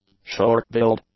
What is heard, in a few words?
"Short-billed", English; nouns